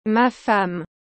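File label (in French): "ma femme", French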